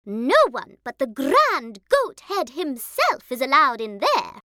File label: Cartoon voice